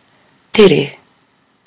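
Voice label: "tere", Māori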